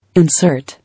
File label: "Insert", English